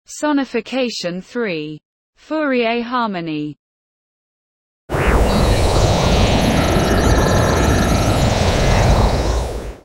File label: sonification poster basic audio description